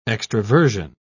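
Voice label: "extraversion", British English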